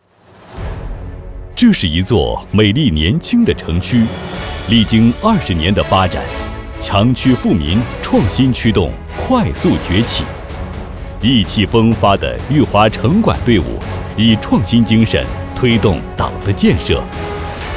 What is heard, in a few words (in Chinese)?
大气威严政府专题